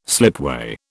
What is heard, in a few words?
"slipway", British